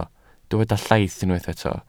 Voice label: "llaith", Welsh